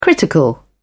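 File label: "critical", British English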